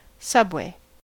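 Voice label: "subway", US English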